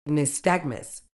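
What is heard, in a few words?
"nystagmus", medical English, British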